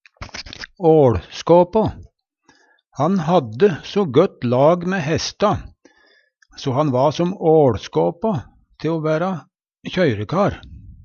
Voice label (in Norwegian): "åLskåpå", Numedalsmål